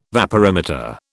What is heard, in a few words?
"vaporimeter", British